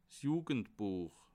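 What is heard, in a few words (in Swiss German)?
"jugendbuech", Alsatian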